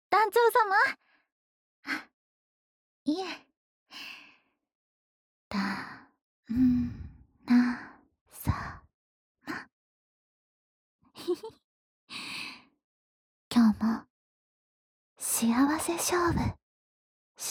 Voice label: フリートーク

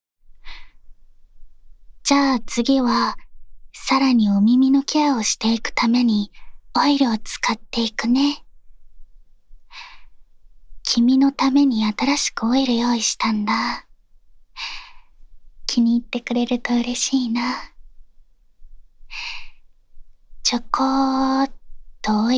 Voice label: お耳のマッサージタイム, ASMR

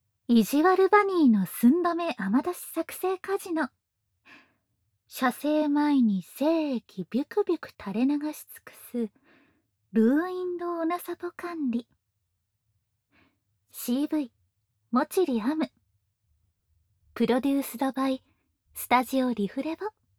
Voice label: タイトルコール, ASMR

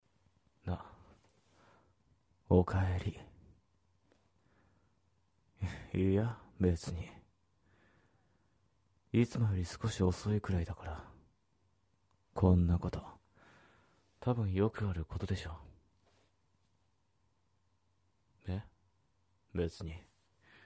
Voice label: ささやき 低音声 元気な彼氏がヤンデレに変貌して貴方の耳で語り続けるボイス; 女性向けASMR／睡眠・作業用／恐怖／ホラー

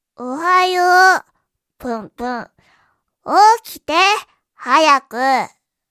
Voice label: 金曜 娘, おはよー

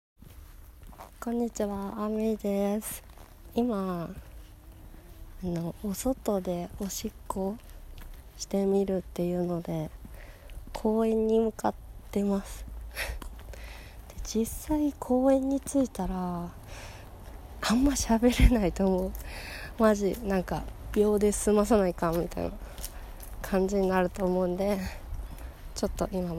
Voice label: 屋外おしっこ前トーク

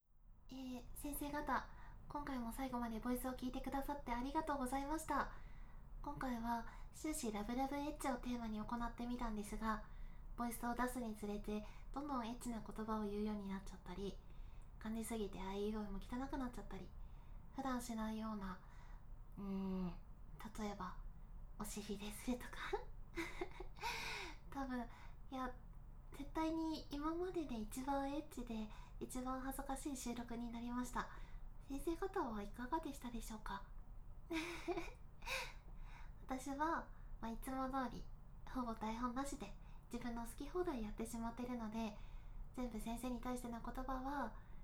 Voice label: 放尿しながらフリートーク; はじめてのおしがま！

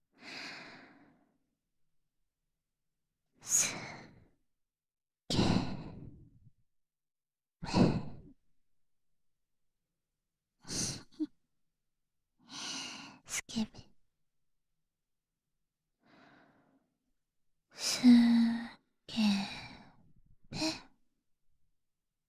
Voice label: 囁き淫語連呼; SEなし

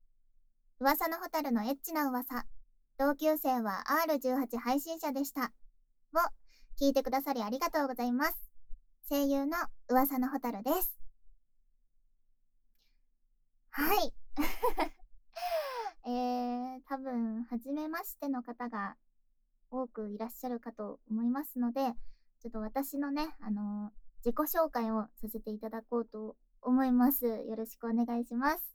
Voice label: フリートーク

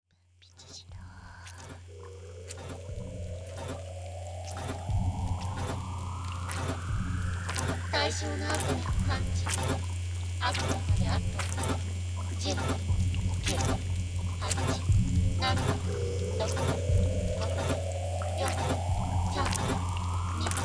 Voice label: 喘ぎ声OFF; mode=ビッグバン☆起動; デイドリームＴＳ機械姦～どうしてもメス化したいあなたがＡＩのエネルギーになるまで

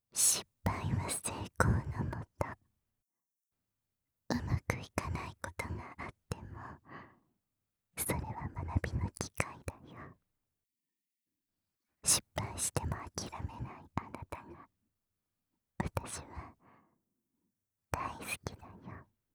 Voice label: 全肯定 ささやき ボイス

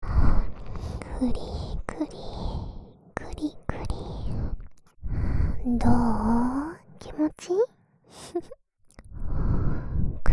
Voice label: 耳元射精煽り L (左耳); 副会長

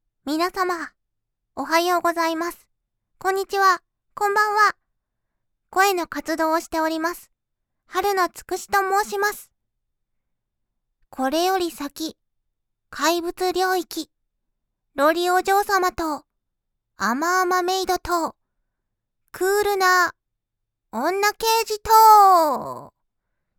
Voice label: フリートーク

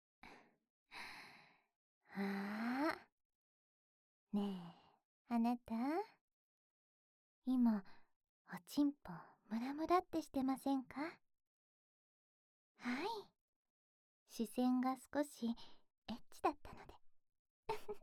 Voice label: えっちな言葉、囁きます♪; 6.おまけ『えっちな言葉、囁きます♪』

